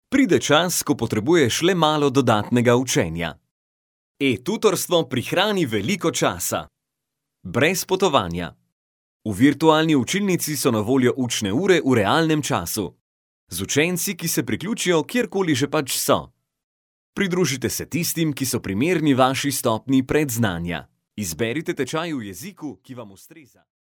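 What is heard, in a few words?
Slovenian, male, e-learning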